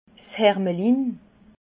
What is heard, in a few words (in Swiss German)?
"hermelin", alsace